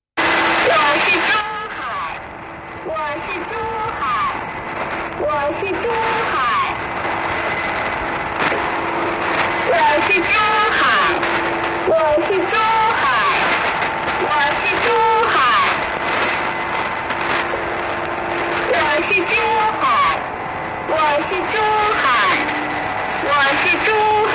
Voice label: oriental language; irdial

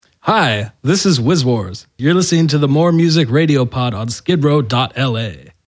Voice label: promo; WizWars MMRP

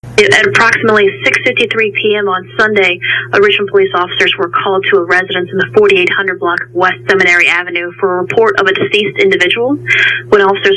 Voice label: Death Announcement; Oderus

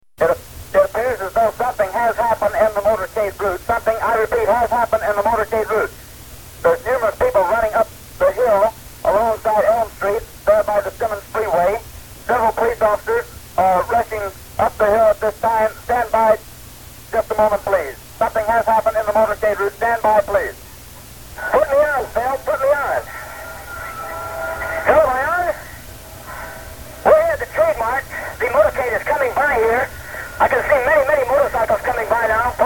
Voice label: The Shooting dramatic recreation

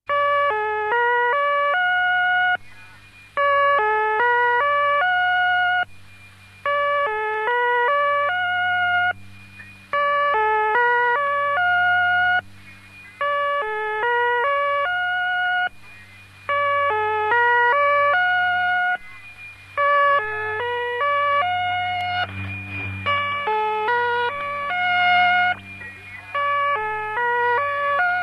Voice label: 5 note version czech lady; irdial

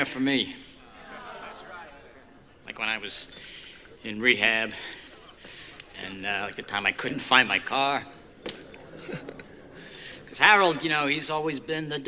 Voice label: wedding singer speech

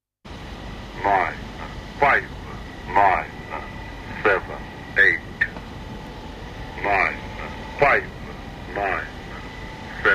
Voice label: english man version; irdial

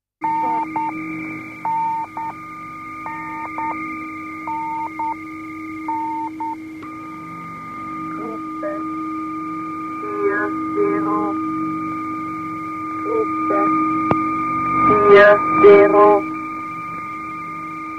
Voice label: german; irdial

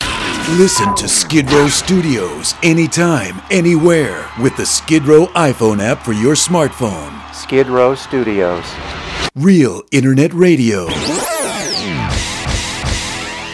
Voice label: Promo; Skidrow Studios

